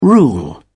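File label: "rule", English, British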